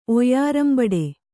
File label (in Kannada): "ಒಯ್ಯರಂಬಡೆ", kannada